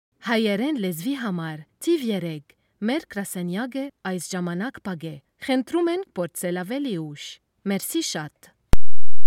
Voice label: Armenian